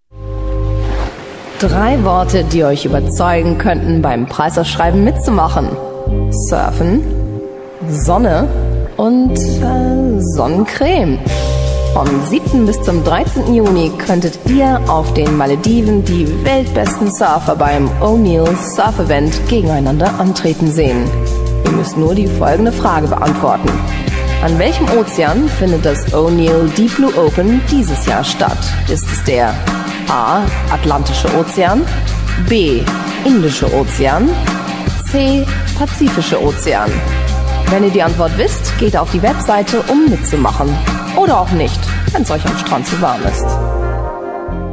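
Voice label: german female voice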